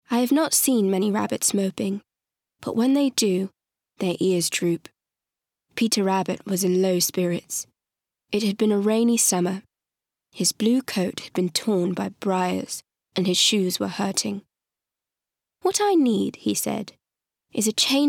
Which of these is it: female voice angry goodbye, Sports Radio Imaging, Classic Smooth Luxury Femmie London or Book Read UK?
Book Read UK